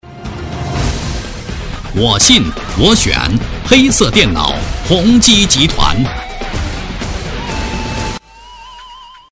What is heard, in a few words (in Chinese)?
boy, guanggao; Chinese; hongji